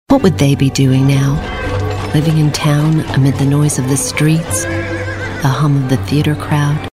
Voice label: Historical Documentary narrative storytellers soft spoken